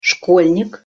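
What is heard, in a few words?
"skolnik", Russian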